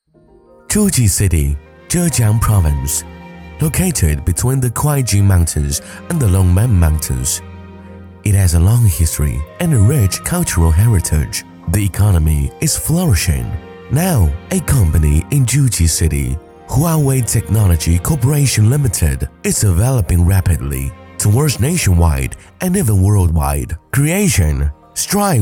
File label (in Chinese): 男 英文 宣传片; HWAWAY